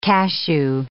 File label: "cashew", English